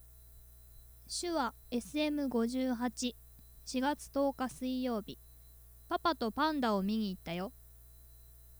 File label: SHURE SM58